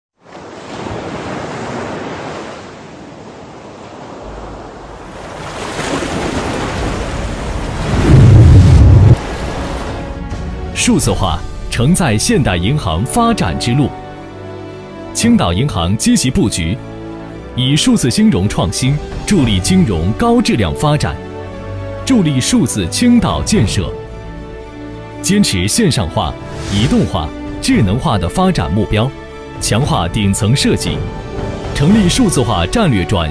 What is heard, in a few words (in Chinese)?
男, 走心; 青岛银行